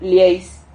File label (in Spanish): "lieis", Silabas